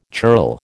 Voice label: "churl", American

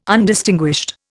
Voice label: "Undistinguished", Indian

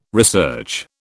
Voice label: "research", British

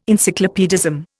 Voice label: "encyclopaedism", Indian

